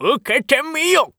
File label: oldman attack